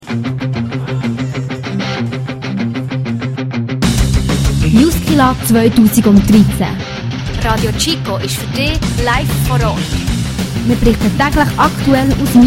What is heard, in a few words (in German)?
Schulklasse Sportnachrichten; JUSKILA 2013